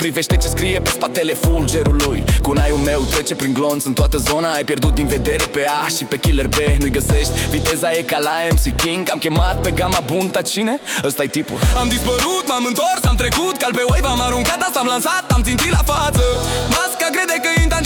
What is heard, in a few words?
Rap, Trap; Trap Ninja